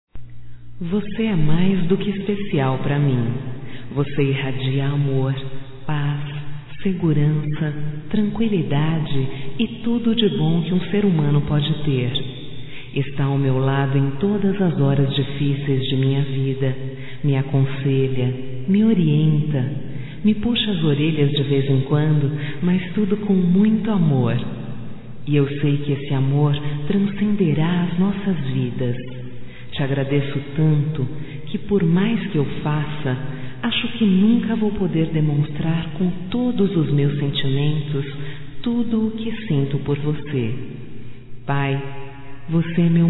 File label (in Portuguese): voz Agradecimento ao pai, fem